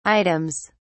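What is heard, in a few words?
"items", British English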